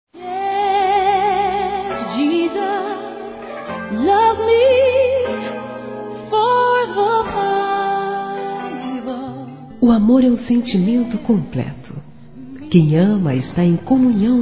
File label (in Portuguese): Evangélico Romantica Masculino